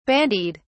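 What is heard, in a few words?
"bandied", British English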